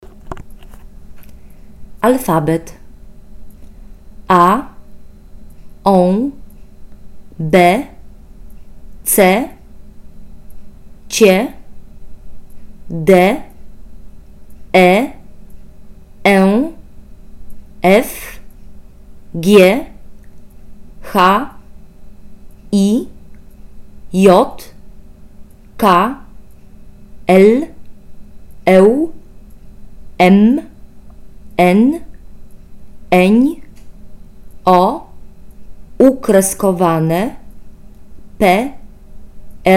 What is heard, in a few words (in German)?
Poln Alphabet